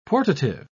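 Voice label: "portative", British English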